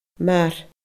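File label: "mar", Scottish Gaelic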